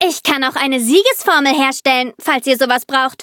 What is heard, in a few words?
loading, de; cookierunwiki